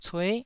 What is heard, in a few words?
"cue9", Taiwanese syllable